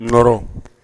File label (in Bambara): "nɔrɔ", Bambara